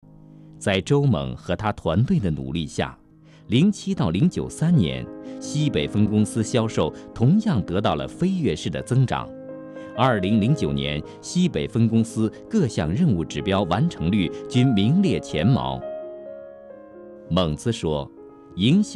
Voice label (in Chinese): 专题 人物 公司人物介绍, 男国